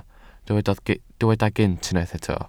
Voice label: "gynt", Welsh